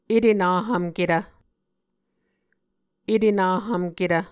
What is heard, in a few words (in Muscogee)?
"etenahvmketv", Muscogee